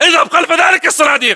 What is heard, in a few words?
order cover boxes, battle chatter, english